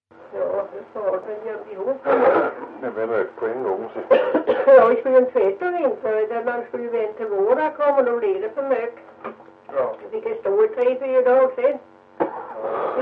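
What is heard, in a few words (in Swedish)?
Ventlinge Öland, Svenska sagor dialekter; Folkminnen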